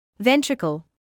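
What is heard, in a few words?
"ventricle", British English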